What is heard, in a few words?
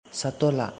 "Satola", Angami; walk